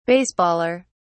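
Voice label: "baseballer", British English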